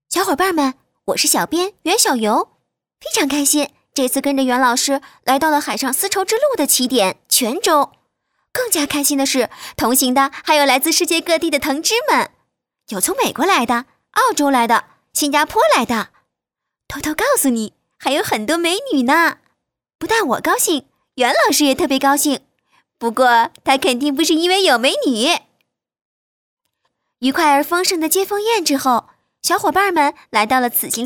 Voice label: 女 专题 年轻 可爱萌 旅游, 国语女声配音

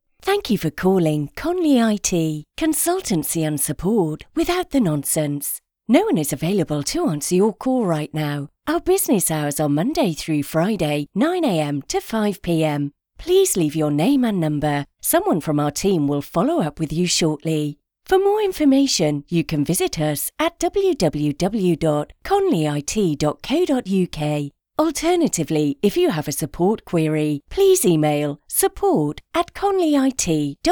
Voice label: 外籍英女, 温柔亲切, 电话语音应答

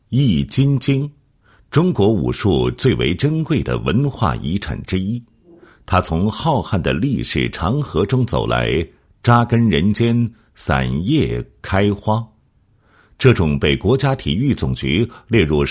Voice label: 男 宗教; 易筋经

